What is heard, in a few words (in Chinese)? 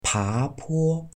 "爬坡", Chinese